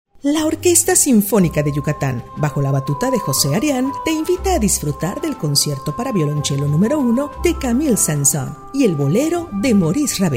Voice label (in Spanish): Spots de radio